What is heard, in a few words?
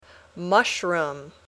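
"mushroom", English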